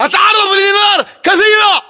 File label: inform suppressed generic, battle chatter, english